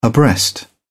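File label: "abreast", English, British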